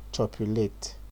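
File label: "chopulate", Nigerian Pidgin, male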